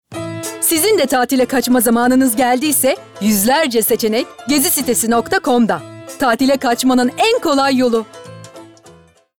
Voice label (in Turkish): Neseli